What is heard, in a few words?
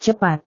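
"cepat", Indonesian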